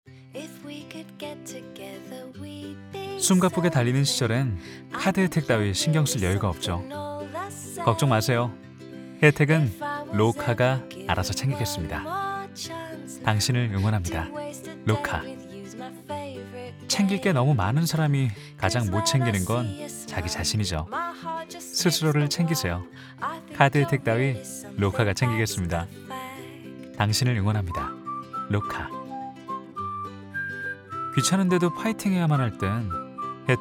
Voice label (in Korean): 밝고 따뜻한 20대; 로카